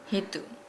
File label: "seven", Nukuoro